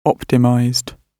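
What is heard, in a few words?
"optimized", British English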